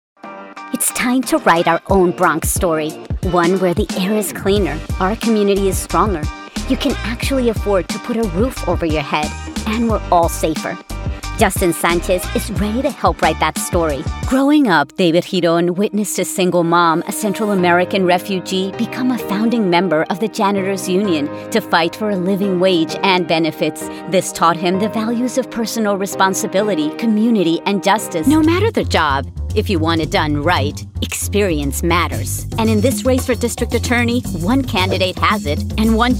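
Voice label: Political English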